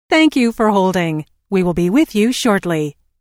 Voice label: Telephone prompt: Thank you for holding we will be with you shortly, Recorded Voice